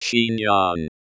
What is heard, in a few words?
"chignon" pronunciation US